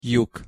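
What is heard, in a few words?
"lyuk", Hungarian pronunciation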